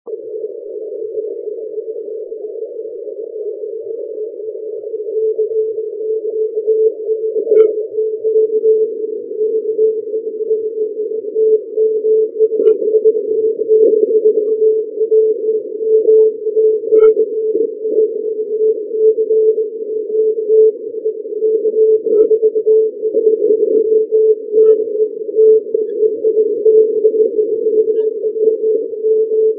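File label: 80m short path; FT-1000d; Italy.....direct path at nighttime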